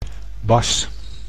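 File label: "bas", Dutch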